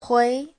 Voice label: "hui2", chinese